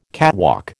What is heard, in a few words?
"catwalk", American